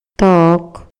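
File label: Maya, male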